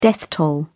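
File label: "death toll", British English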